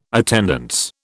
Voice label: "attendance", British

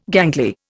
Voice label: "gangly", Indian

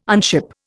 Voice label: "unship", Indian

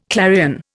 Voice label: "clarion", Indian

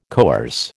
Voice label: "coerce", American

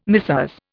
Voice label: "Messieurs", Indian

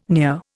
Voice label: "near", Indian

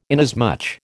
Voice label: "inasmuch", American

